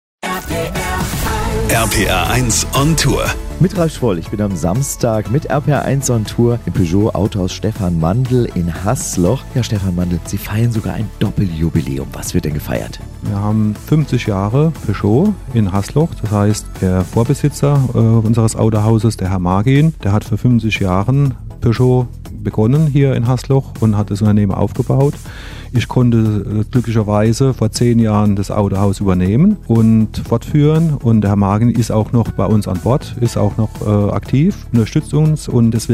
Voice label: Infomercial